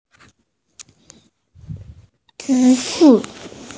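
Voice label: "zhi4 yu4", Mandarin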